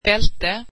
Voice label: swedish belt